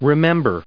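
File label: "remember", American English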